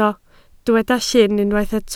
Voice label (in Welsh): "llyn" Welsh